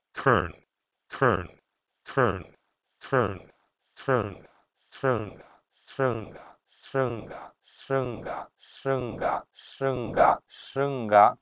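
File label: Proto-Indo-European krn to Sanskrit shrnga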